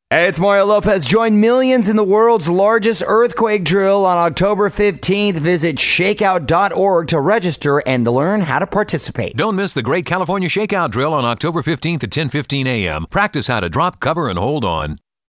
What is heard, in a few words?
PSA; ShakeOut